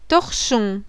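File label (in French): "TORCHON", French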